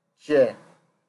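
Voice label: "chair", Nigerian Pidgin, male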